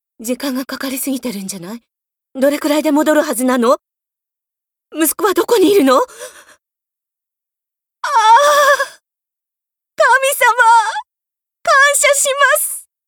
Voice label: 不安から安堵

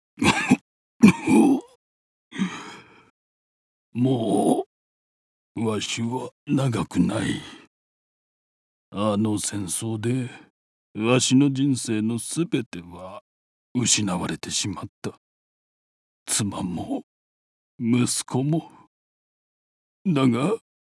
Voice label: 衰弱老人最後の頼み

